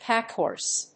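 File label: "packhorse", English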